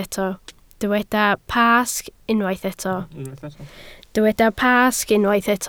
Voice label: "Pasg", Welsh